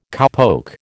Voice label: "cowpoke", American